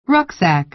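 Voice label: "rucksack", American English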